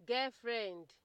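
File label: "girlfriend", Nigerian Pidgin, female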